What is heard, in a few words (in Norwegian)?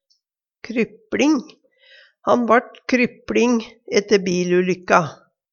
krypLing, Numedalsmål